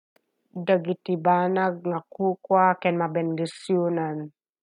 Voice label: Ilocano